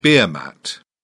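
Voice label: "beer mat", English, British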